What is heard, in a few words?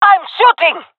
attacking, jungle fem; agents; jungle fem epic